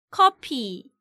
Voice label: "Coffee", Korean